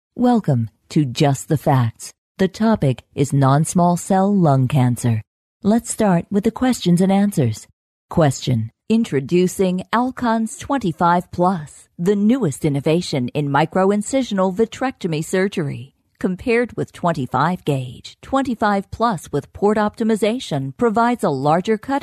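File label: US English, female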